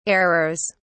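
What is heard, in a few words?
"errors", British English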